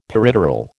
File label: "peripteral", American